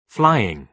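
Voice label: "flying", British English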